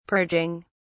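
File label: "purging", British English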